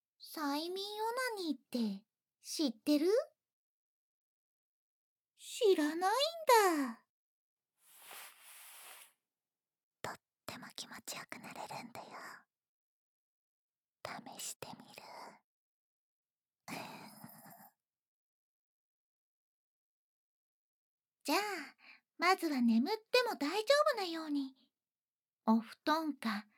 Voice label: 催眠導入, ASMR

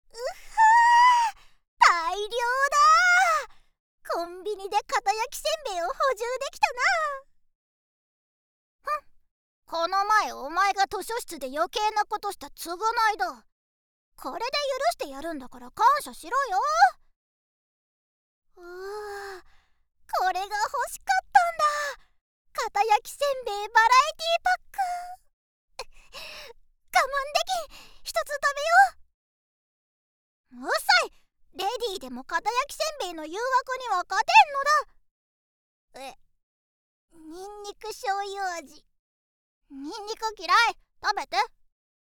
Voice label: 声のみ ノイズ除去なし; ナマイキでかわいくてご主人様なヴァンパイア; めがみそふと; 効果音なしver

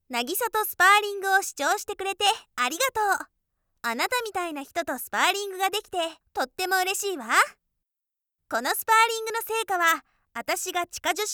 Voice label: メッセージ, ASMR; ナギサからのメッセージ

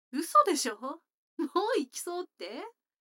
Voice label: 女の子台詞, ASMR

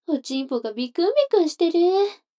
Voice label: 女の子 台詞

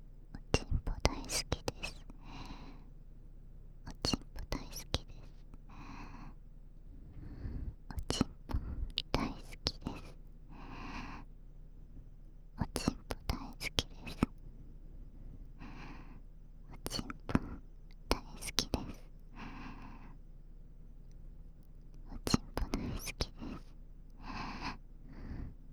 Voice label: 耳元; 11おまけ／耳元おちんぽ大好き（左）

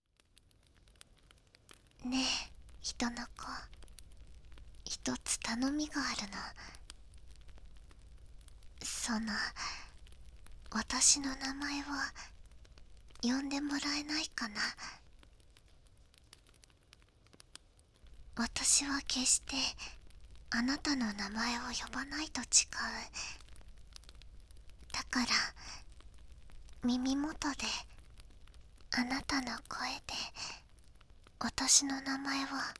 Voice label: 私の名前を呼んで…【BGMなし】, ASMR

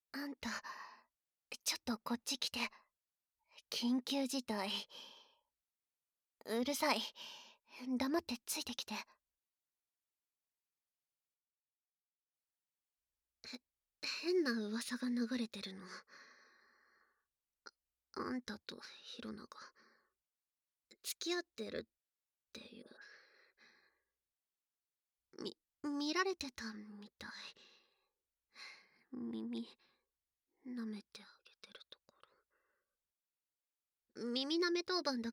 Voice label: 童貞卒業耳舐めセックス, ASMR; 効果音カット版; 〔水曜〕

